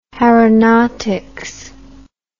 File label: "caronotics", English